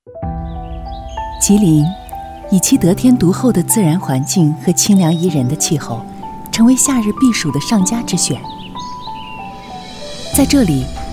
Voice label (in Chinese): 文旅宣传 广播; 飞标凉夏